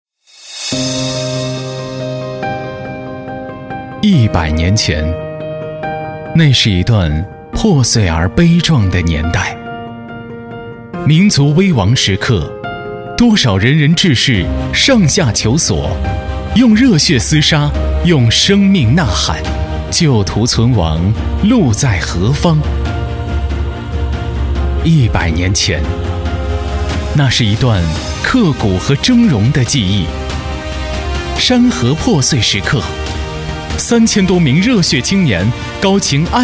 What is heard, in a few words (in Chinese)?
纪实配音; 五四运动